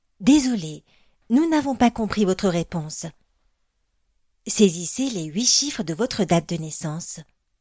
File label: FRENCH